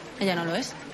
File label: Voice Acting es F